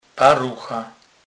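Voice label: "parucha", Polish, Kurpie dialect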